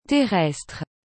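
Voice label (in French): "terrestre", French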